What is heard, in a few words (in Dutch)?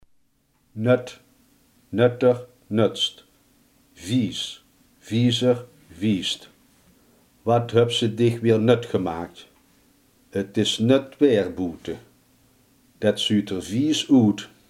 "vies", limburgse